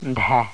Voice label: mazatec horse